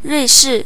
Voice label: "switz", zh_CN; gcompris; geography